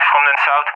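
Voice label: "from the S", English speech; Allied Flight; DCS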